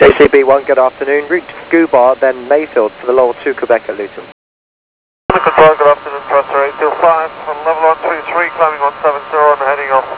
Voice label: ATC Chatter UK; fgfs